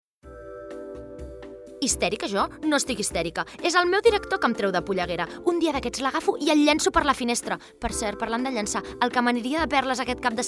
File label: female catalan travel comercial